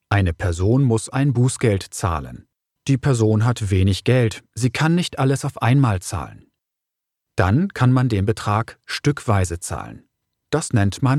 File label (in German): beispiel erklaerbaer